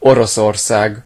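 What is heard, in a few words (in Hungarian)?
"russia", Hungarian; gcompris; geography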